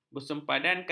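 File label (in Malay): "bersempadankan", Malay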